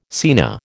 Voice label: "scena", American